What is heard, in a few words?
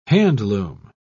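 "handloom", British English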